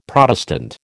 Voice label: "Protestant", American